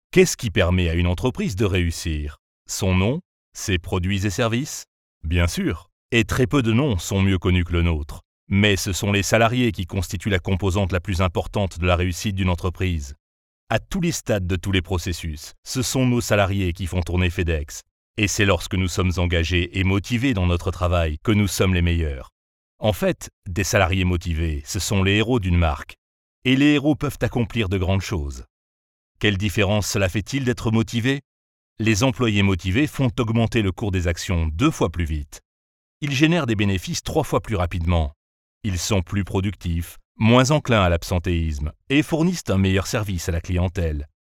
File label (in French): voix grave neutre Corporate; FedEx